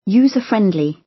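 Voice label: "user-friendly" British; Technology; Công nghệ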